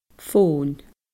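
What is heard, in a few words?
"fón", Scottish Gaelic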